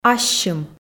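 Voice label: "ассьым", Komi, female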